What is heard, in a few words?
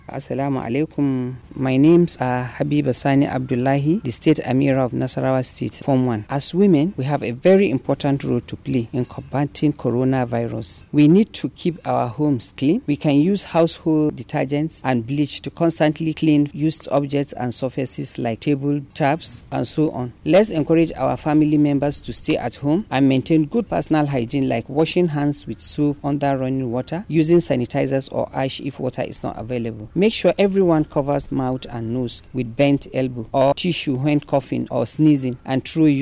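FEMALE ISLAMIC RELIGIOUS LEADER HAUSA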